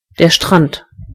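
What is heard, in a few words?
beach, German voices words; gcompris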